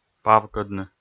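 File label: "павкӧдны", Komi, male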